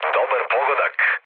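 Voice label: Serbian player killed enemy mood low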